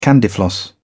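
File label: "candyfloss", British English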